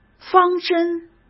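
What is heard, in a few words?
"方针", Mandarin Chinese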